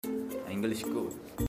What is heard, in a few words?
English good